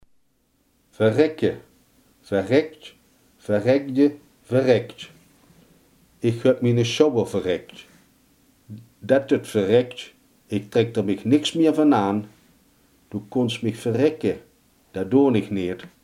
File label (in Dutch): "verrekken", limburgse